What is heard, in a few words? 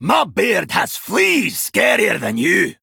Male Dwarf Threaten; Tavish